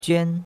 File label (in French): "juan1", chinois; Cours-de-Chinois